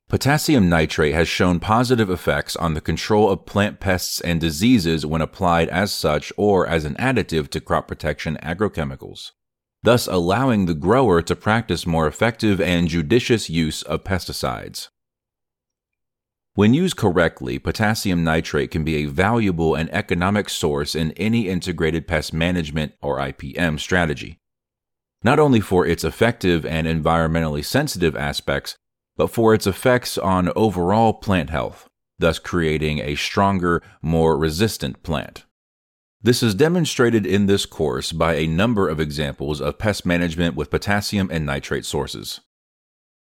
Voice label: Adult non fiction